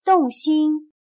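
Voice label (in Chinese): "动心" Mandarin Chinese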